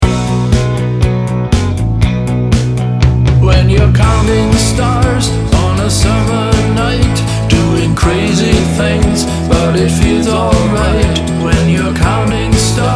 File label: Counting neutral; Newfangled